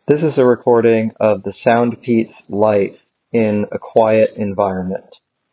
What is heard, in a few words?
Soundpeats Life Quiet Environment